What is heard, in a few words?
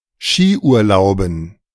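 "Schiurlauben", German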